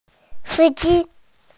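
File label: "driver", Mandarin Chinese, female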